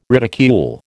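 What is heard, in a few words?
"ridicule", American English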